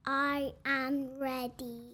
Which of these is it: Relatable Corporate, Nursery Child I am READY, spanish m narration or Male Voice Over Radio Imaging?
Nursery Child I am READY